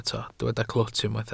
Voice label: "clwt", Welsh

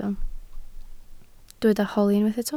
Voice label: "holi", Welsh

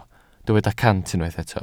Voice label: "cant", Welsh

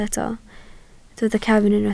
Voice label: "cefn", Welsh

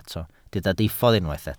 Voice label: "diffodd", Welsh

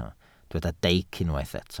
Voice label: "deic", welsh

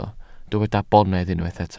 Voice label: "bonedd", welsh

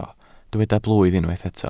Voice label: "blwydd", welsh

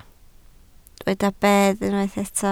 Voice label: "bedd", Welsh

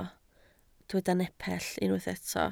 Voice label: "nepell", Welsh

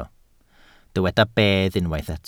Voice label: "bedd", Welsh

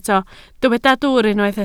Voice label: "dwwr", Welsh

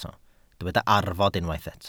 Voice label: "arfod", Welsh

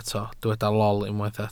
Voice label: "lol", Welsh

